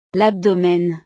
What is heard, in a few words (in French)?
"l abdomen", frances; partes del cuerpo